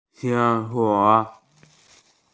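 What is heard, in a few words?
"result", Mandarin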